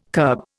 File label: "curb", Indian